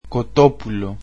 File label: greek chicken